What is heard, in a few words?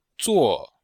"zuo4", Chinese